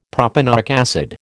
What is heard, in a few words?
"propanoic acid", American